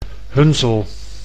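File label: "Hunsel", Dutch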